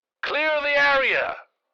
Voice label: Military radio voice command clear the area